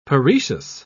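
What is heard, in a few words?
"paroecious", British English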